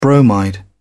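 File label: "bromide", British English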